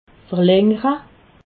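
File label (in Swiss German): "verlangere", Alsatian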